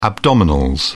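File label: "abdominals", British English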